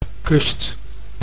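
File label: "kust", Dutch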